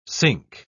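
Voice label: "sync", English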